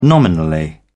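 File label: "nominally", British English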